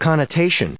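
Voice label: "connotation", US English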